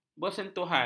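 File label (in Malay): "bersentuhan", Malay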